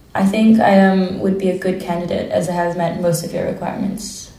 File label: female filler; interviewee